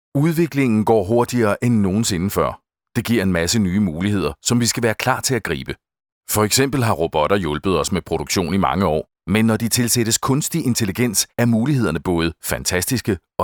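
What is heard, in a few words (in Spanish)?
corporativo, Danish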